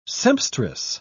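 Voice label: "sempstress", English, British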